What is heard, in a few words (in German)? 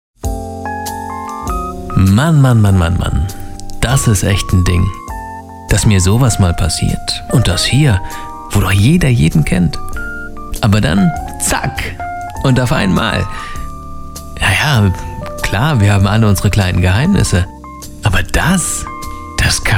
trailer cocktailbar